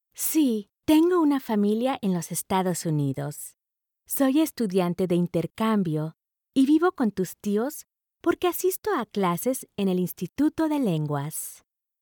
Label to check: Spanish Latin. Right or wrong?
Right